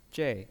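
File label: "zhei" Mandarin Chinese pinyin voice, fourth tone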